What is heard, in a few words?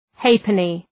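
"halfpenny", English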